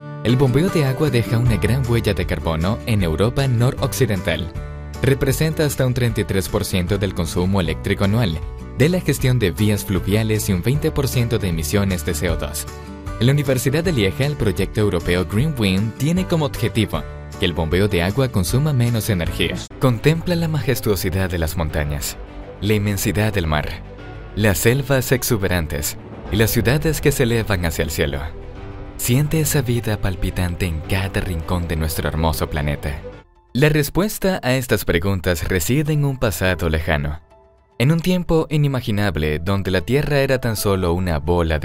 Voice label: Spanish E-Learning